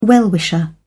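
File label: "well wisher", British English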